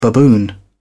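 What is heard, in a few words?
"baboon", British English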